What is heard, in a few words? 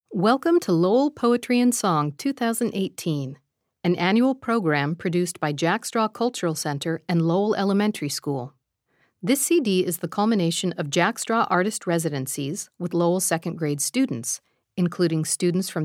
Opening Narration; Lowell Elementary: Poetry and Song 2018